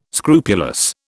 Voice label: "scrupulous", British